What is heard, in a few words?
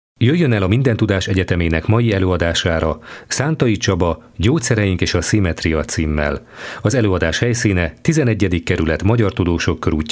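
Male Hungarian IVR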